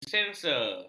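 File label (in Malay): "disensor", Malay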